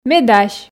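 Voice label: Komi sentences, female; ме дась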